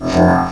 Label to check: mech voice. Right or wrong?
Right